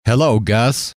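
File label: "hello", male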